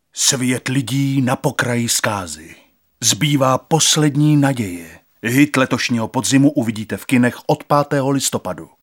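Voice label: Male Czech commercial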